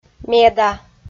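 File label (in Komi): "меда", Komi, female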